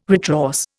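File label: "retrorse", Indian English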